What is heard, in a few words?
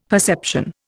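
"perception", Indian English; Dictionary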